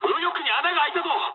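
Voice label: right wing mood high, speech japanese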